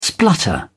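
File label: "splutter", British English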